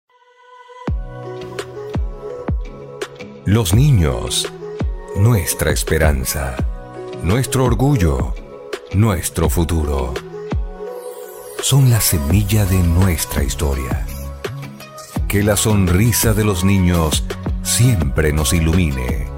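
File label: generico, Venezuelan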